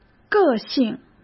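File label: "个性", Mandarin Chinese; chinese-flashcards; hsk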